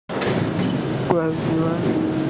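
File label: "gong yuan", Mandarin Chinese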